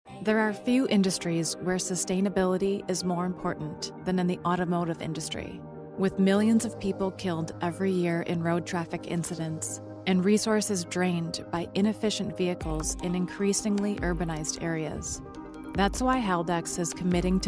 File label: Documentary Narration